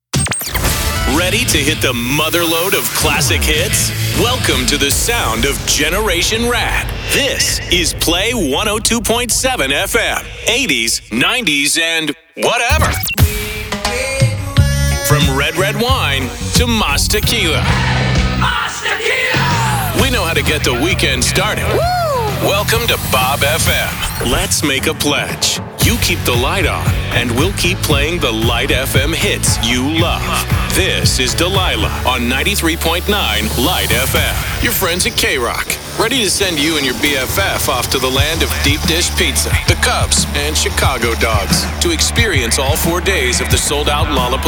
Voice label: Radio Imaging Variety